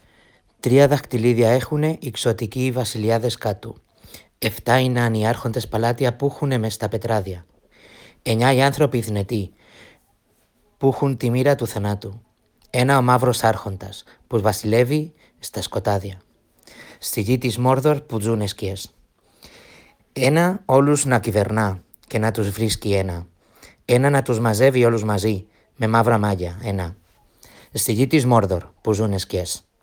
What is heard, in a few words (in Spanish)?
Poema anillo Griego